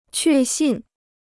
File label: "确信", Chinese